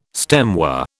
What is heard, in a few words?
"stemware", British